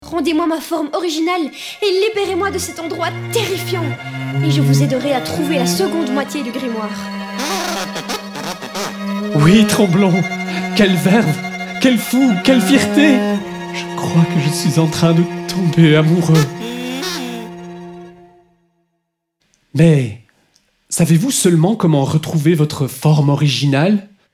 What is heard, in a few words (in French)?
NARRATION